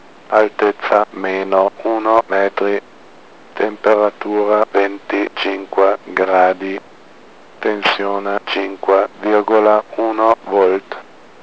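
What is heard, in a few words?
msg it m, Italian, male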